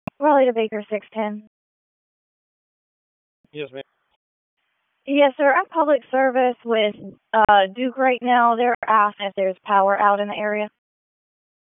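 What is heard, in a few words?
Police Southwest District Dispatch, p25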